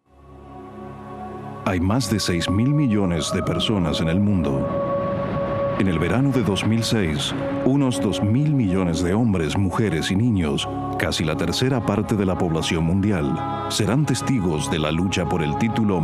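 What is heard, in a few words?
narration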